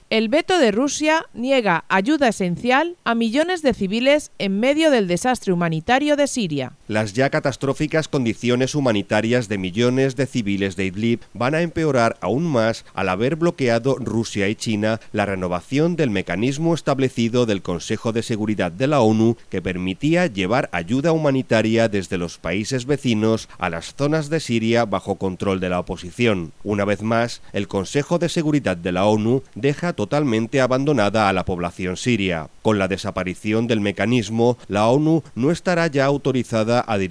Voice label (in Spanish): informativo; siria veto rusia china impide ayuda humanitaria